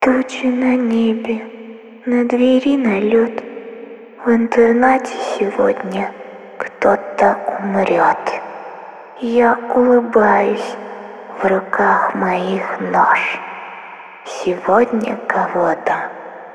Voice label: russian movie trailers